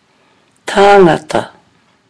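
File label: "tāngata", Māori; people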